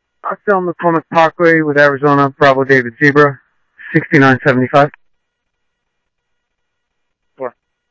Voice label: police, scanner audio; SCTSDLE PD - PD2; ScottsdalePolice; ThompsonPeakHigh